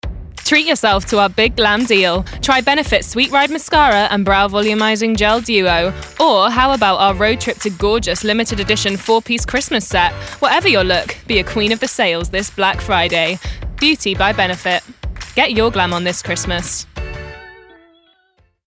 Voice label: Advert

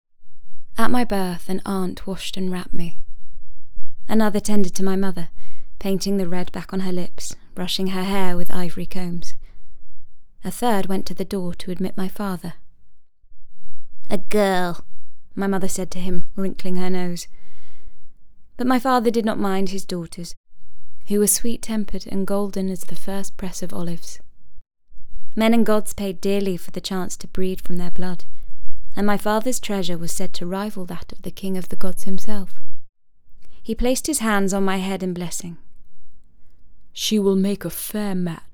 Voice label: AUDIOBOOK NARRATION

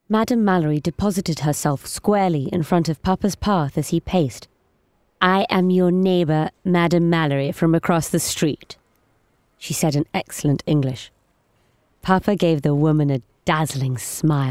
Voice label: AUDIOBOOK; 100 Foot Journey

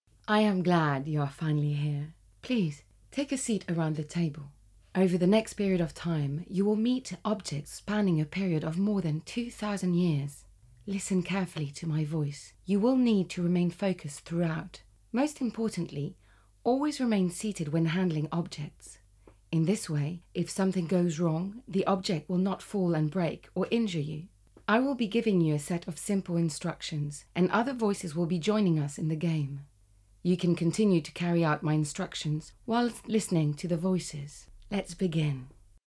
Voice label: Narrative; Crafting

